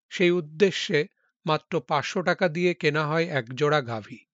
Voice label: Male